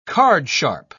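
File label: "cardsharp", British English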